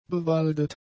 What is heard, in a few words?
"bewaldet", German; adjectives